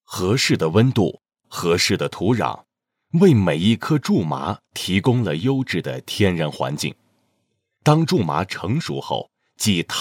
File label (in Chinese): 男, 品牌宣传 母婴产品宣传配音; 良良